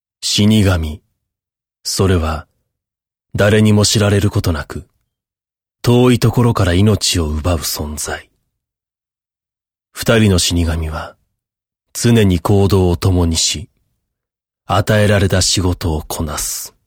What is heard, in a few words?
narration